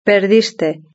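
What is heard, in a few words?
"perdiste" Spanish; verb-conjugator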